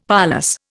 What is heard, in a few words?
"parlous", Indian; Dictionary